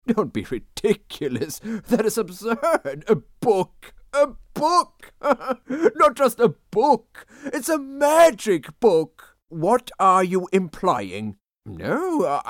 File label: English Animation Voicereel